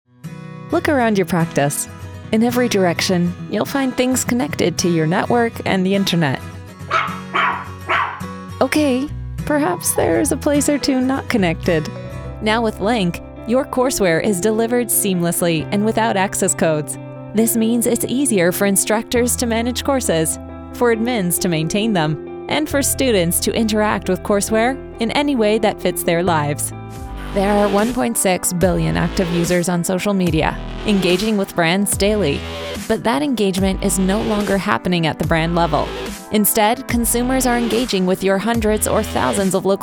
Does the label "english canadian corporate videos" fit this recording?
Yes